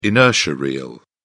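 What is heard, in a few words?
"inertia reel", British English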